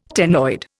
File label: "ctenoid", Indian